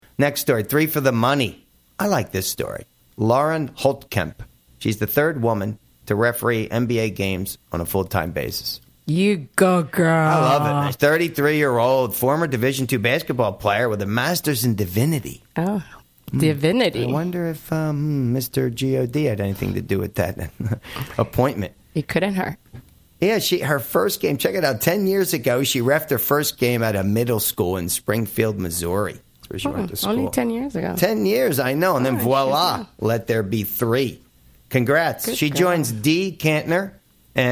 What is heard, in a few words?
Female